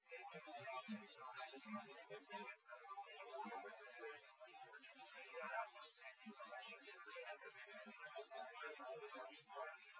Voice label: male; SiSEC08; SiSEC underdetermined